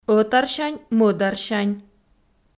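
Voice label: "ӧтарсянь-мӧдарсянь", Komi, female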